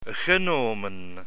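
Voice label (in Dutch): "genomen", Dutch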